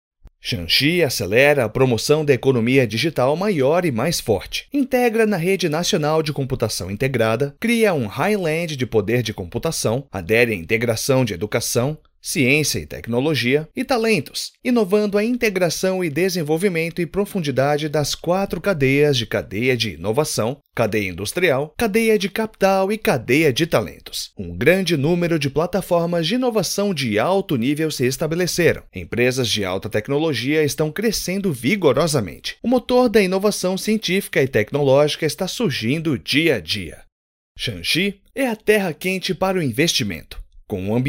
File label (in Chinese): 葡萄牙男声